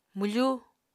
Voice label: "mwfu", Apatani

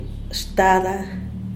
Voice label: "shtada", Diaz Ordaz; padre

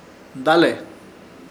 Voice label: "Dale", Ishir Chamacoco; ir hacia

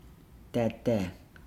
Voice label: "carve", Nukuoro; Nukuoro Talking Dictionary

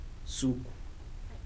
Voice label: days, Kibembe

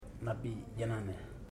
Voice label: "twentyone", Ipili

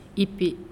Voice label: "Hair", NAASIOI; LAE

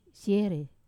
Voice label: "se", to see, Wamesa

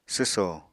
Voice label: "swso", Apatani

